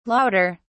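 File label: "louder", British English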